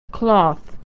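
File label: "cloth", American English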